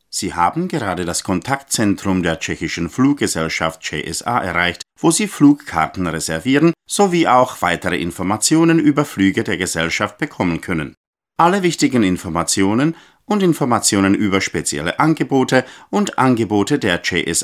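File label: Male German IVR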